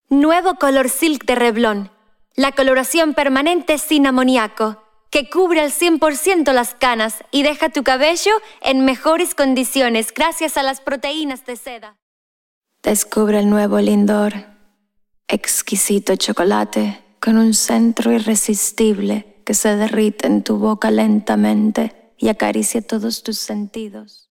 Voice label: South American

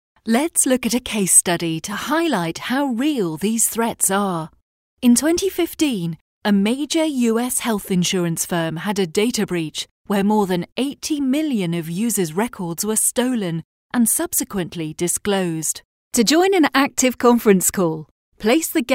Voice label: elearning

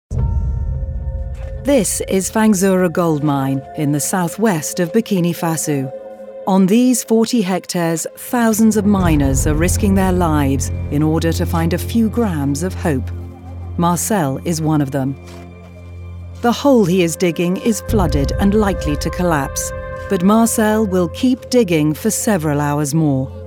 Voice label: Narrative

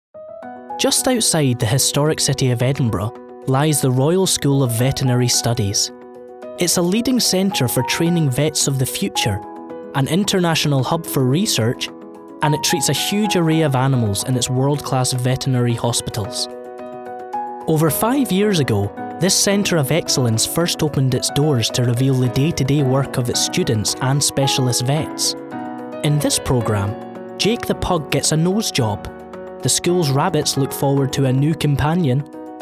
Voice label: Documentary

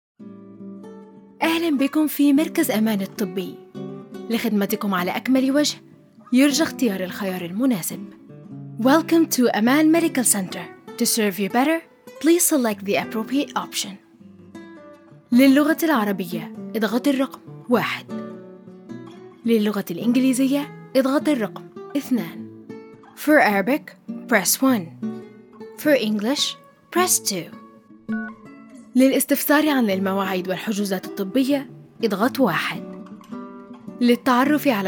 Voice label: IVR

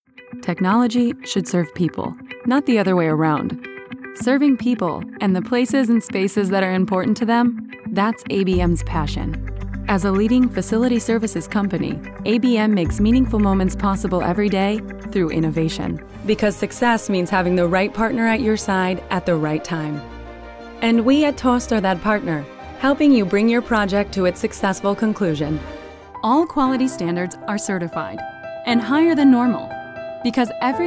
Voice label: CORPORATE NARRATION

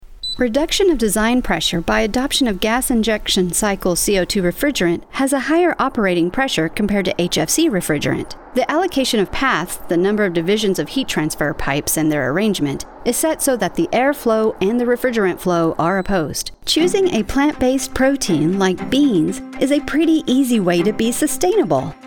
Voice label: Corporate Narration

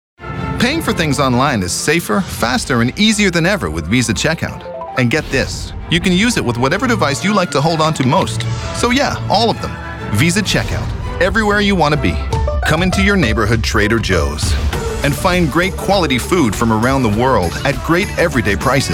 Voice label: commercial

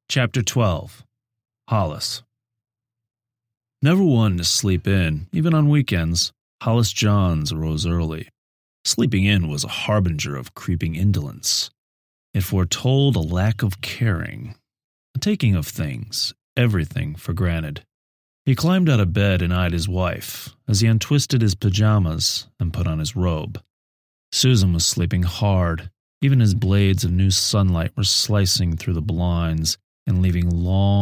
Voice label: Male Audiobook

